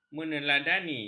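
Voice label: "meneladani", Malay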